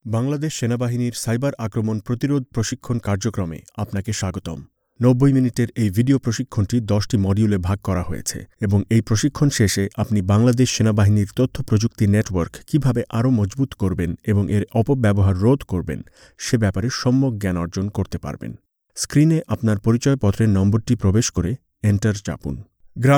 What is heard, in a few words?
Bengali eLearning